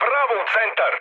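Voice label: Serbian bomb success mood high, speech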